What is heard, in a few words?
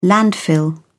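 "landfill", British English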